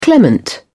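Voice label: "clement", British English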